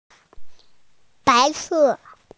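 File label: "white", Mandarin, hearing-impaired; bai2se4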